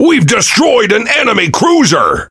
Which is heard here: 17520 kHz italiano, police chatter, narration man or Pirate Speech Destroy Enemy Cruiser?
Pirate Speech Destroy Enemy Cruiser